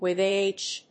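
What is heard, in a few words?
"With age", English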